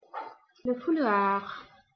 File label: "scarf", clothes french